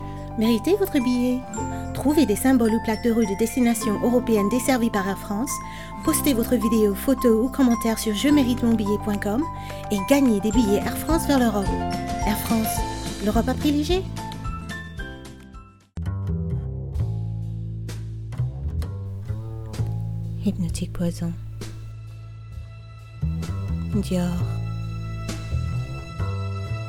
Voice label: French commercial voice

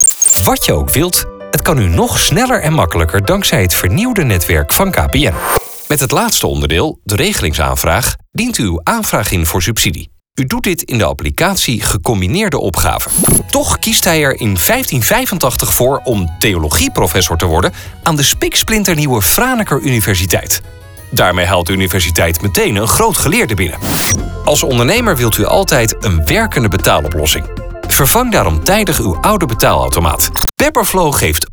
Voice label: commercial

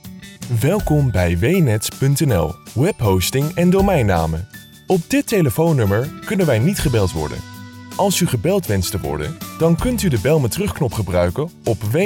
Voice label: voicemail

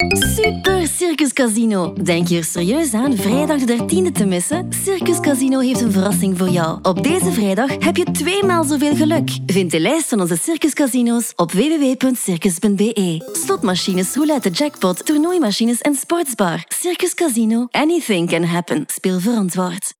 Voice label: Commercial Dutch

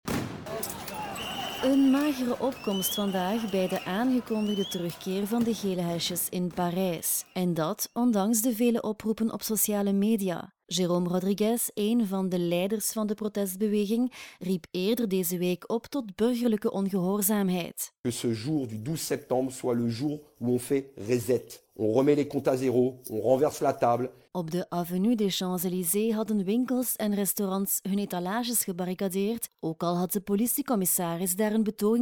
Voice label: Radionews Dutch

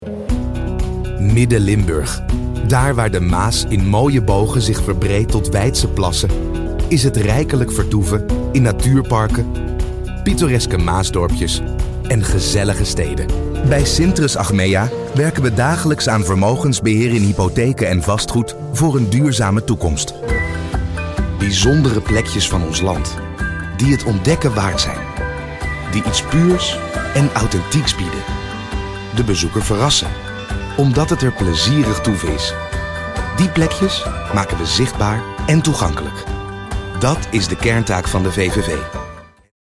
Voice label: corporate warm

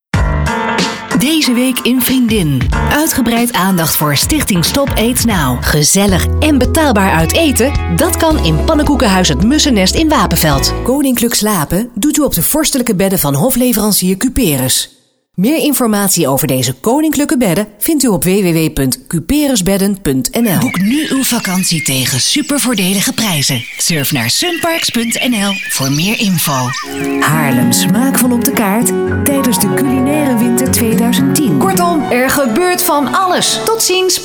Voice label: commercials

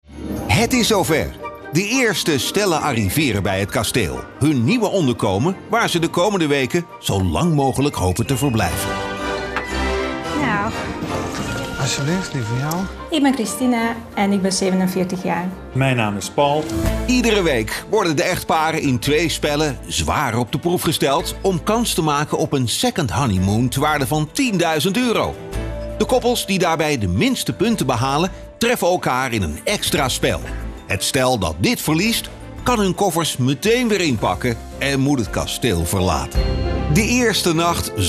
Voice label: voice over

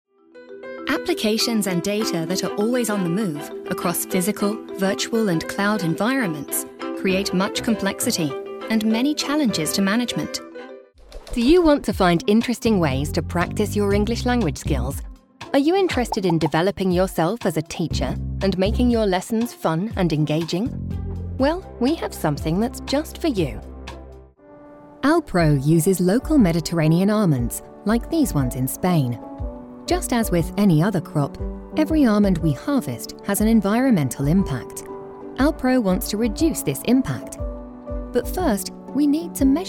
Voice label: Corporate

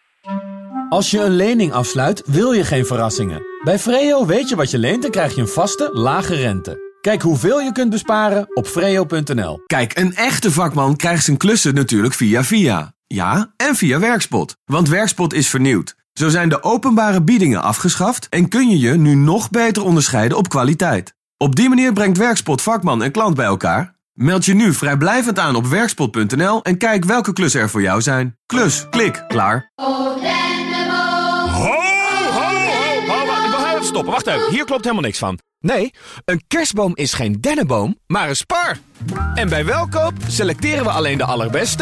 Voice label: Commercials

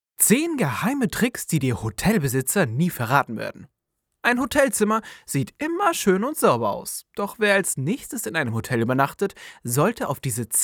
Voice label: Youtube Video Hotels German

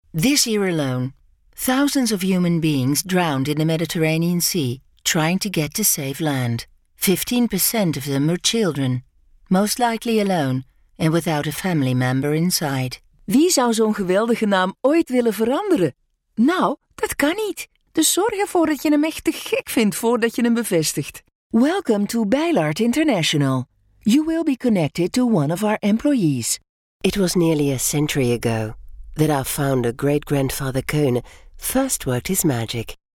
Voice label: English Flemish

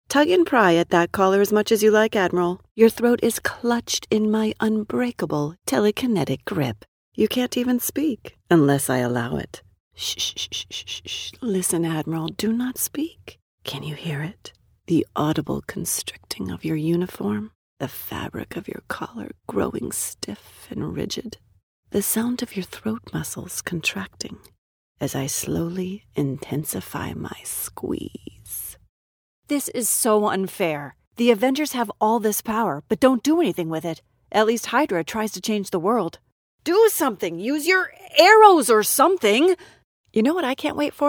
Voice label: Video Games Character

